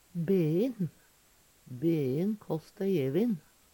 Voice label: "be`in", Numedalsmål; Uttrykk

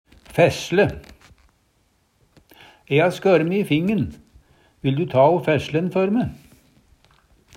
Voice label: fæsle, Numedalsmål

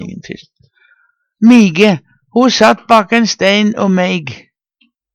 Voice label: mige, Numedalsmål

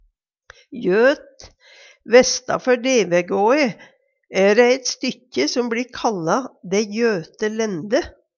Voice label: "jøt", Numedalsmål; Adjektiv

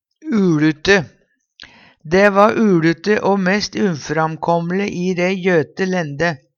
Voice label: "uLute", Numedalsmål; Dæ va uLute o mest uframkåm'le i dæ jøte lænde.; Adjektiv

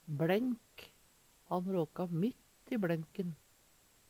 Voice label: "bLenk", Numedalsmål; Substantiv hankjønn; Han råka mitt i bLenk`n.

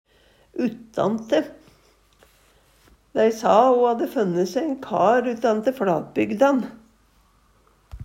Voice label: uttante, Numedalsmål; utanifrå; Adverb

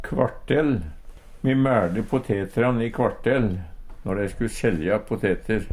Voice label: kvartel, Numedalsmål; Hushald, mat, drikke

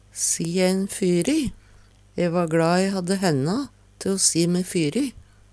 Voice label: "si ein fyry", Numedalsmål; E va gLa e hadde henna te o si me fyry.

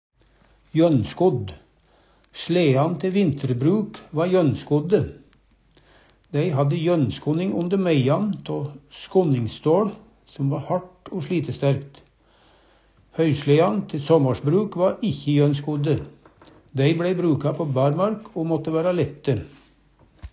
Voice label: jonnskodd, Numedalsmål

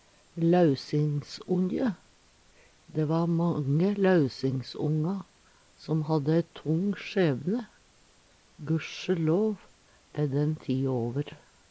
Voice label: lausingsonje, Numedalsmål

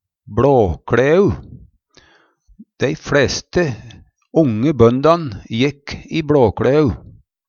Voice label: "bLåkLæu", Numedalsmål; Dei fleste onge bøndan jekk i bLåkLæu.

